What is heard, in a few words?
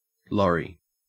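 "lorry", Australian English; pronunciations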